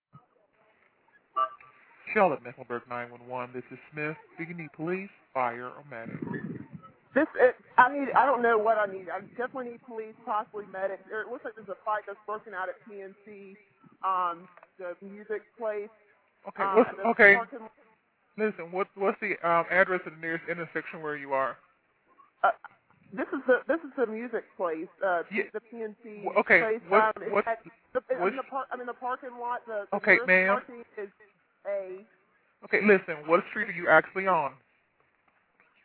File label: 911 call; PNC Pavilion